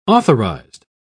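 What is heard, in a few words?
"authorized", British English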